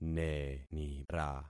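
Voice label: "nenira", concatenated syllables; Affixing experiment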